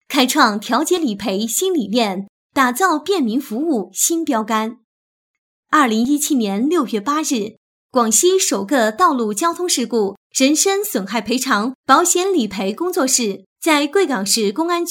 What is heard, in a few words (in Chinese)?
解说 稳重 陈述 理赔事项